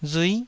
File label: "rui9", taiwanese syllable